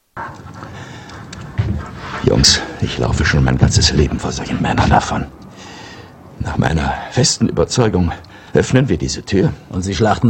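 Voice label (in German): acting, Aufgebracht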